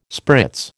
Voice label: "spritz", American